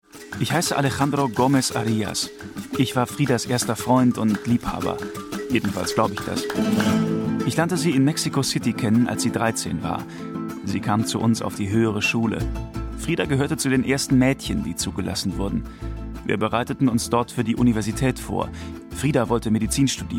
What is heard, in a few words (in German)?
de feature, deutsch maenner; sprecher